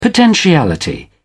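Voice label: "potentiality", British English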